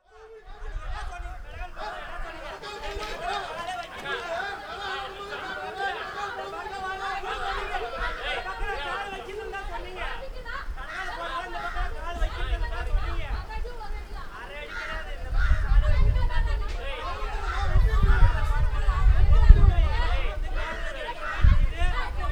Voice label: Quarrel in a Small Village Train Between Bangalore and Kochi; Samuel Corwin; Selected Field Recordings from India and Nepal Volume III Environments